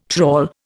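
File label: "trawl", Indian English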